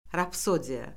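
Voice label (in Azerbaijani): "rapsodiya", Azerbaijani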